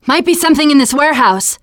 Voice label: searching, teengirl